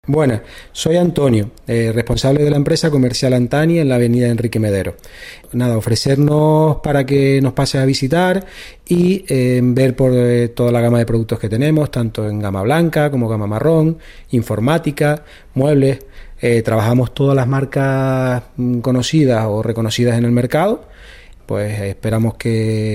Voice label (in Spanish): COMERCIAL TESTIMONIO; ANTANI